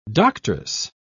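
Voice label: "doctress", British English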